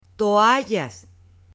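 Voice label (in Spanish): "TOALLAS", Spanish, female; AppLenguaje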